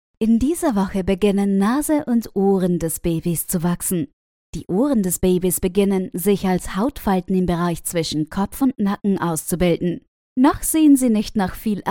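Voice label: German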